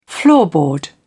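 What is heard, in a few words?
"floorboard", British English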